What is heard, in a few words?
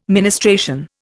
"ministration", Indian